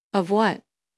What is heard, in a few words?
Voice Acting es